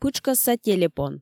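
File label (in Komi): пытшкӧсса телепон, Komi, female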